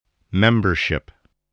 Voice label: "membership", voice, spelling